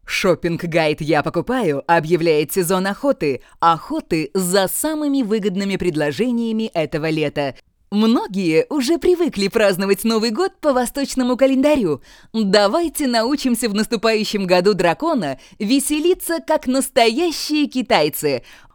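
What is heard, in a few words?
Russian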